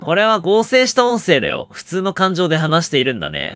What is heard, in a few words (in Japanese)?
lightFire (normal), これは合成した音声だよ、、普通の感情で話しているんだね。; girl-style-bert-vits2-JPExtra-models